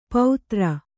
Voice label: "ಪೌತ್ರ", Kannada